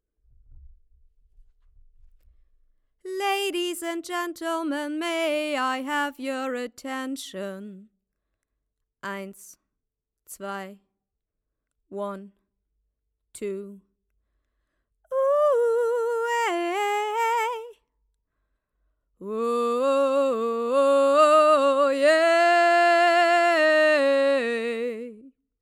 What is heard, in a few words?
neumann tlm 102, Gesangsmikrofon; Grossmembran